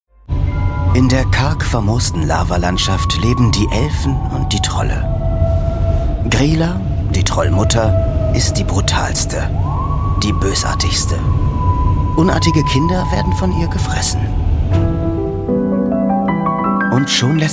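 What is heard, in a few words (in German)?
Doku Kommentar, professioneller Sprecher; Kurzschluss Trolle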